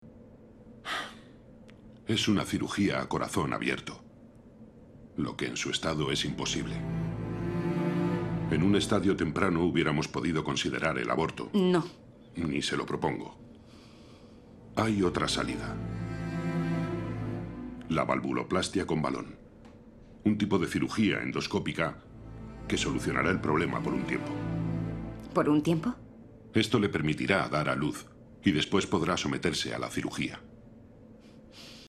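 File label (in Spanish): doblaje España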